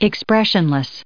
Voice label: "expressionless", us